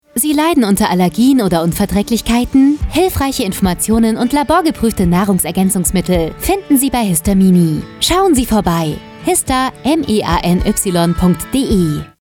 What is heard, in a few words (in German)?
Funkspot; Histameany